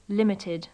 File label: "limited", english